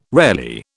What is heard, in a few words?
"rarely", British